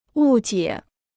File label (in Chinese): "误解", Chinese